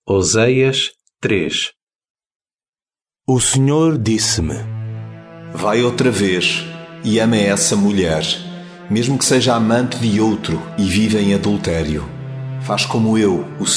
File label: portuguese, drama; portuguese bsp nt drama; oseas